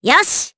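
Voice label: voice, Japanese; Cecilia; kingsraid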